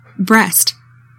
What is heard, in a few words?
"breast", english; 3000 words